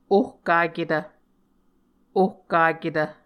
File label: "ohkaketv", Muscogee